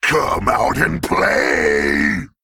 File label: Male Worgen Play